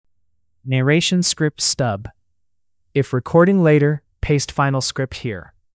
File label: narration